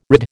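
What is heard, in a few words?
"rid", American English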